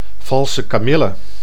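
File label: "valse kamille", Dutch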